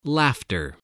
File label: "laughter", spelling